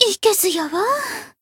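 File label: Voice damaged Japanese; hoozuki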